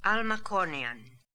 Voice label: "Almacornian", pronounciations; Fourth Way; Beelzebub

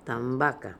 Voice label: "Tambak", pronounciations; Fourth Way; Beelzebub pronounciations

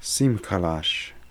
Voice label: "Simkalash", pronounciations; Fourth Way; Beelzebub

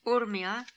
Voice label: "Urmia", pronounciations; Fourth Way; Beelzebub

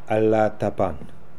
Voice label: Alla attapan, Beelzebub pronounciations; Fourth Way

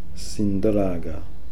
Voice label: "Sinndraga", pronounciations; Fourth Way; Beelzebub

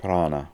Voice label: "Prana", pronounciations; Fourth Way; Beelzebub

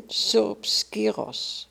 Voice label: "Surp Skiros", pronounciations; Fourth Way; Beelzebub

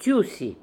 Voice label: "Toosy", pronounciations; Fourth Way; Beelzebub

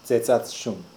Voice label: "dzedzatshoon", pronounciations; Fourth Way; Beelzebub

